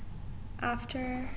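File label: "after", Pakistani, female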